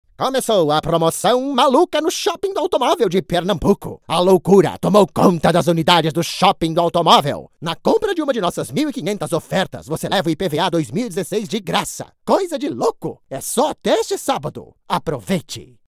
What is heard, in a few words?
FUNNY VOICE OVER ANNOUNCE PORTUGUESE VOICE